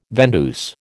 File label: "vendeuse", American